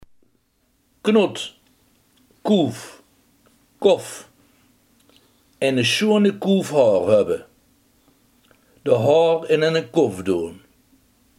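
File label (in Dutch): haardot, Limburgse gesproken woordenboeken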